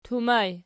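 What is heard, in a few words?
"tumaj" nominative, Archi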